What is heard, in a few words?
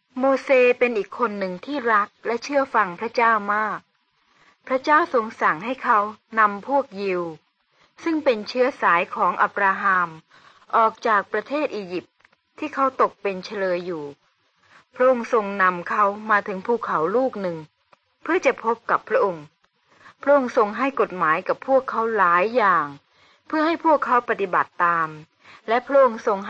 good news short thai central